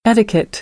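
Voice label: "etiquette", American English; AmericanBritish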